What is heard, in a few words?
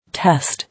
"Test", English